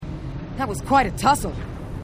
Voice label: female marine